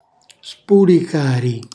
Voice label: "spulicari", Calabrese; cucina calabrese

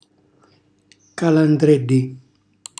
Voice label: "calandrelli", Calabrese; sandali del pastore

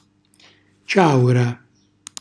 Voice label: "ciaura", Calabrese

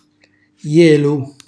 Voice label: "jelu", Calabrese; ghiaccio; cucina calabrese; Glossario

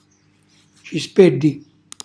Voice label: "cispelli", Calabrese; crespelle

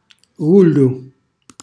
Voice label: "gullu", Calabrese; remissivo, un po' tonto; cucina calabrese; Glossario

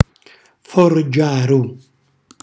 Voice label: "forgiaru", Calabrese

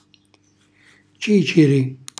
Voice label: "ciciri", Calabrese; ceci

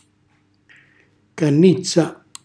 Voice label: "cannizza", Calabrese

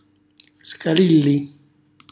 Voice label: "scalilli", Calabrese; cucina calabrese

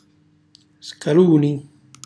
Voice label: "scaluni", Calabrese; cucina calabrese

